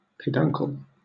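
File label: "peduncle" English, British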